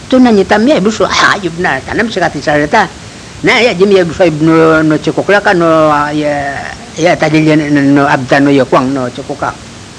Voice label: Yami Language; niomahas a longtsang si Kaojinzhong